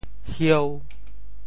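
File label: "hieu5", Teochew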